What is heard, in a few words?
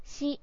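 "shi", Japanese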